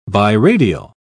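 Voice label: "biradial", British English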